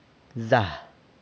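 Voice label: "zah", grease, San Lucas Quiaviní Zapotec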